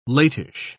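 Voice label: "latish", British English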